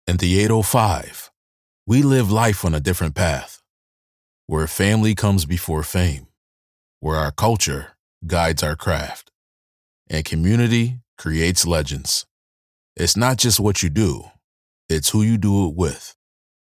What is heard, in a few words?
Voice For Beer Brand